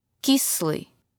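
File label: "sour", Russian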